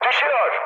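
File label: Turkish aircraft destroyed, mood high, speech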